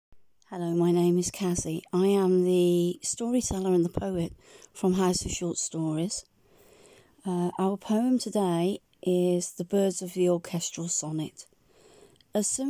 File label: Audio Recital; The birds; Orchestral Sonnet